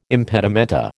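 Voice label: "impedimenta", American English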